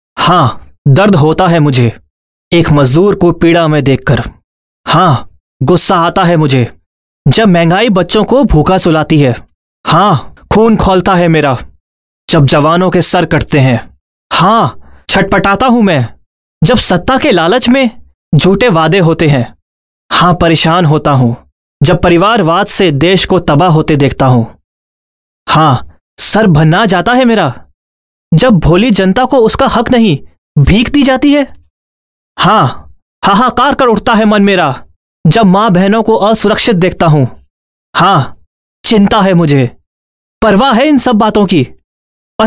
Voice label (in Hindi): Monologue Hin, male voice